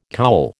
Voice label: "cowl", American